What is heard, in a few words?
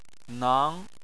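"nang", Chinese, second tone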